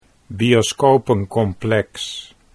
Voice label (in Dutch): "bioscopencomplex", Dutch